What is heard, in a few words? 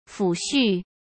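"抚恤", Mandarin Chinese; chinese flashcards; hsk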